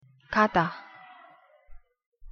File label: "가다", Korean